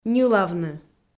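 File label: "ньылавны", Komi, female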